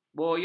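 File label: "boyong", Malay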